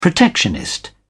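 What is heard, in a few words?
"protectionist", British English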